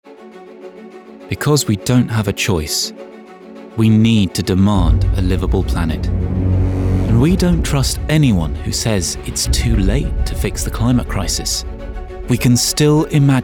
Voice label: english british